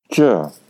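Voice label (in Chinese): "zhi", Mandarin Chinese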